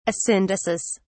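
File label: "asyndesis", British English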